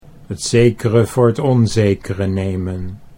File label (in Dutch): "t zekere voor t onzekere", Dutch